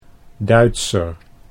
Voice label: "Duitser", Dutch

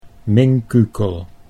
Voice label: "minkukel", Dutch; a jerk

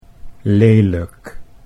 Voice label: "lelijk", Dutch

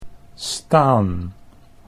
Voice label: "staan", Dutch

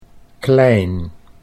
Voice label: "klein", Dutch

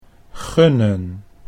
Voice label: "gunnen", Dutch

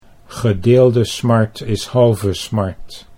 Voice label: "gedeelde smart is halve smart", Dutch

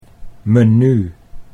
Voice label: "menu", Dutch

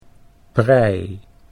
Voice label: "prei", Dutch

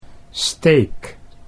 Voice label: "steek", Dutch

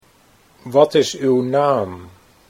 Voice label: wat is Uw naam, Dutch

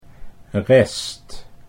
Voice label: "rest", Dutch

